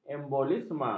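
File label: "embolisme", Malay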